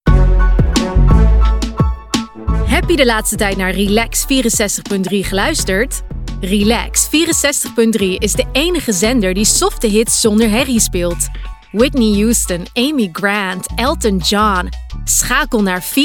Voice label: Commercial Dutch; European; Male; 20s-30s

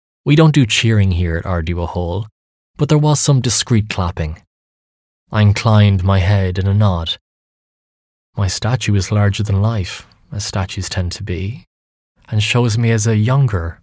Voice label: Audiobooks, 20s-30s. Male. Irish.

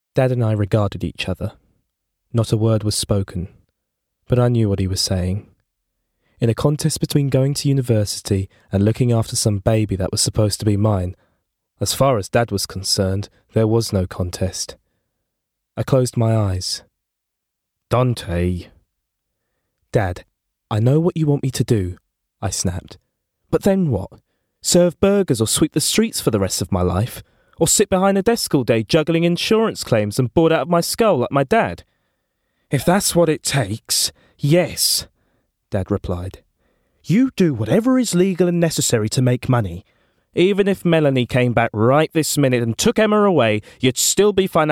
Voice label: Audiobook

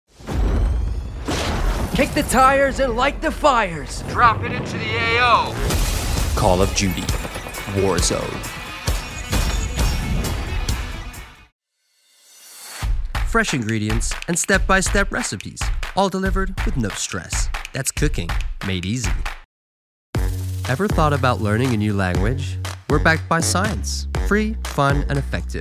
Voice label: American

